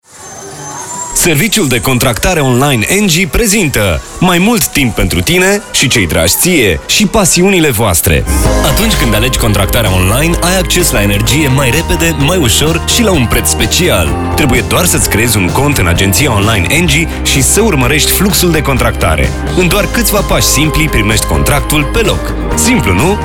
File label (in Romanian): spot radio; engie contractare online